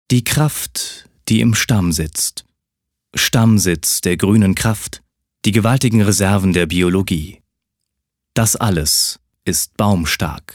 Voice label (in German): Werbung Neutral